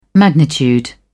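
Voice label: "magnitude", British English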